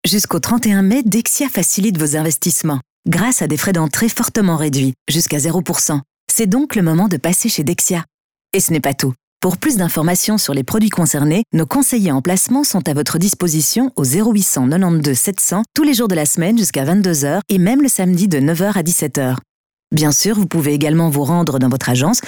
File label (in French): Voix F